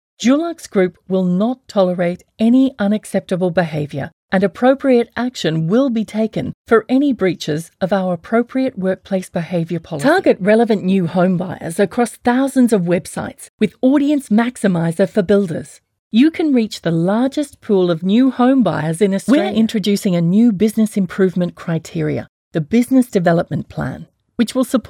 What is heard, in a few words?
Corporate